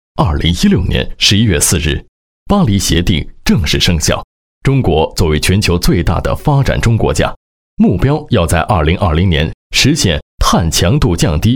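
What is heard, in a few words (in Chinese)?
国语 男声 专题片 成熟稳重 大气浑厚磁性 沉稳; 威海震宇科技-科技公司专题片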